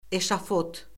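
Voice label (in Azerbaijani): "eşafot", Azerbaijani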